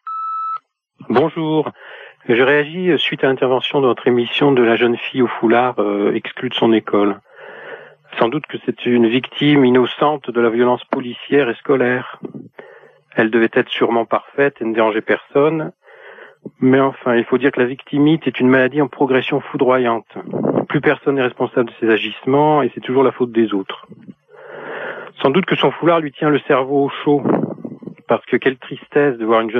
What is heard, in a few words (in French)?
répondeur